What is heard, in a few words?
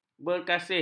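"berkasih", Malay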